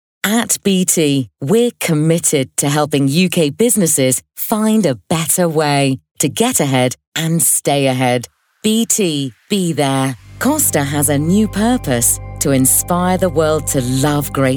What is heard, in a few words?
english british corporate